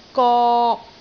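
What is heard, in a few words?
"gok3", Cantonese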